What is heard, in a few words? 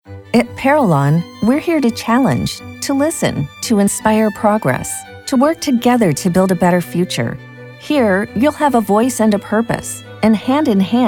spot; Parallon